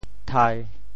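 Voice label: "thai1", Teochew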